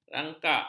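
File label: "rangkak", Malay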